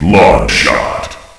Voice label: distant shot, announcer; hl1mp; XDM